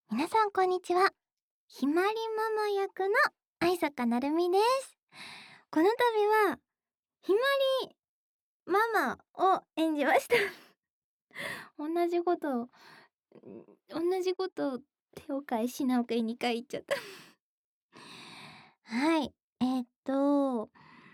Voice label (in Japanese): フリートーク